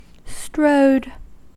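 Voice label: "strode", US English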